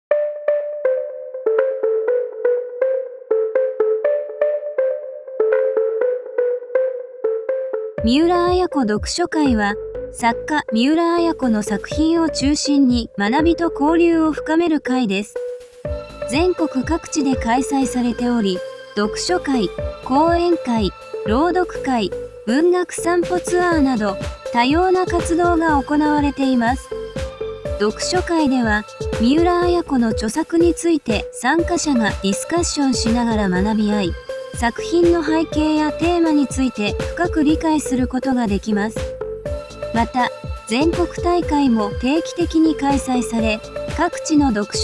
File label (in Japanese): アナウンス